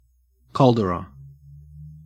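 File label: "caldera" English, Australian accent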